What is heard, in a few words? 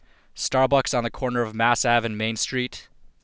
Plantronics Headset Quiet User Phrase